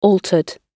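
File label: "altered", British English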